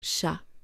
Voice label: "chat", French

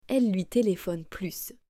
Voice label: "elle lui téléphone plus", French

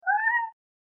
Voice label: "CAT", French

